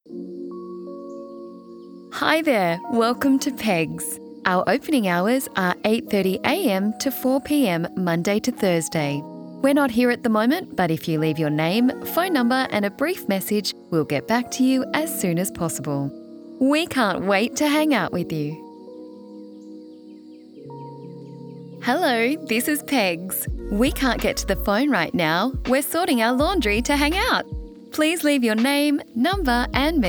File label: on hold messaging